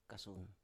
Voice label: "kasun" younger sibling, Biak